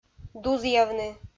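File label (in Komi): "дузъявны", Komi, female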